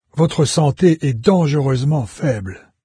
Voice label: health, fr, NARRATOR; Fate

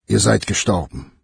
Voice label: die, NARRATOR, de; Fate Undiscovered Realms

